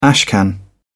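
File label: "ashcan", English, British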